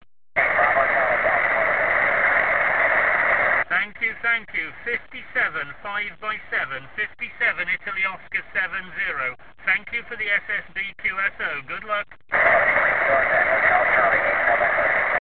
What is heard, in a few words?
ssb qso